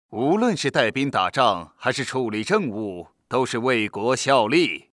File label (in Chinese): 男 游戏角色配音